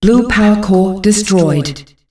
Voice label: Blue Powercore destroyed, announcer female; Unreal Tournament; ut2004